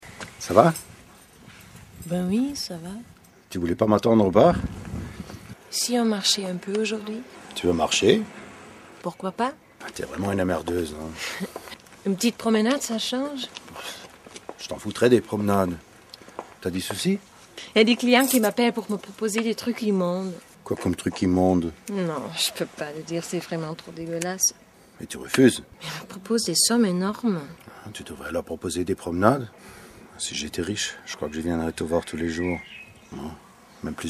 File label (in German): Franzoesisch